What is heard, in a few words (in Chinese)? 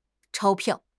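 "钞票", Chinese; HSK6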